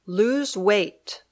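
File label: "lose weight", English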